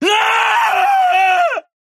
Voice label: screams bloody murder